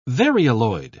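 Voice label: "varioloid", British English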